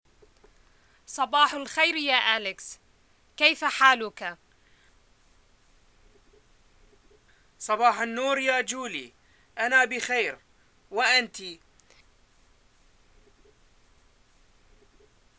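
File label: Modern Standard Arabic telephone dialogue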